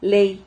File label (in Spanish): "lei", Silabas